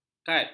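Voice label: "kad", Malay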